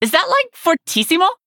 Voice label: Nonbinary Night Elf; Warrior